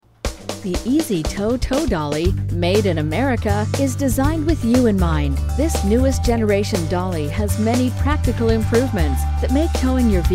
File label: english american narration; professional non-union voice talent (neutral North American {Canadian or US})